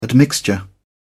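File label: "admixture", British English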